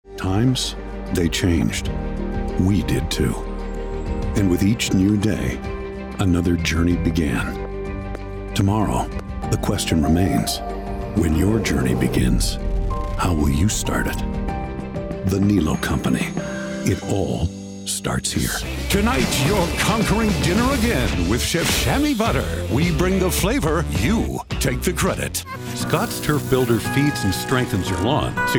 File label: commercial